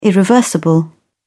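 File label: "irreversible", British English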